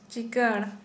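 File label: Santali female smooth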